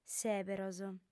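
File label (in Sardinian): "sèberos", female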